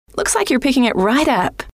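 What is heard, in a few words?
looks like you re picking it right up, female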